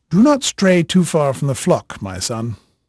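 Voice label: English male, voice; Pirates Of The Caribbean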